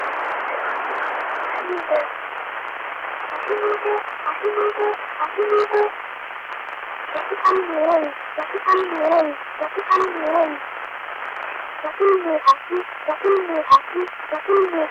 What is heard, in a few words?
Telemetry Voice